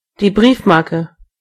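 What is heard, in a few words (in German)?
"stamp", German; gcompris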